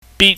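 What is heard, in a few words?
"bit", Cantonese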